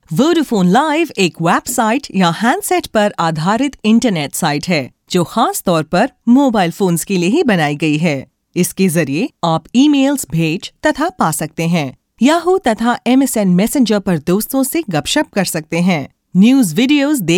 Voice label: IVR Hindi, female voice; Vodafone